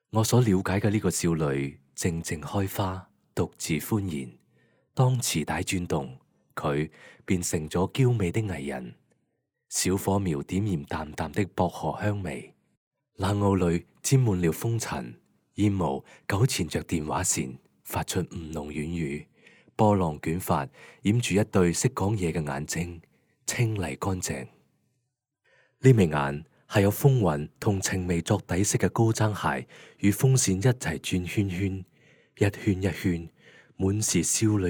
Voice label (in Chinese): 粤语旁白; 我所了解的少女